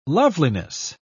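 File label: "loveliness", British English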